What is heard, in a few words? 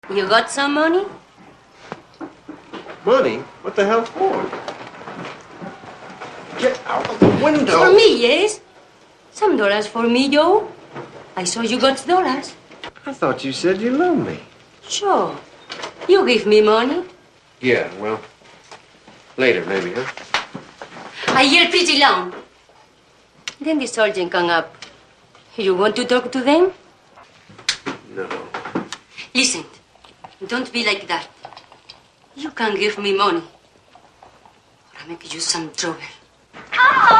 english